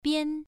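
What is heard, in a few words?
"bian" Mandarin, first tone, pronunciation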